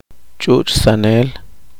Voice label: poqomchi; amamantadora